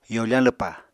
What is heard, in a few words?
"yofañ lwpa", Apatani